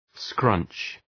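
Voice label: "scrunch" English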